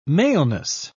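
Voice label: "maleness", English, uk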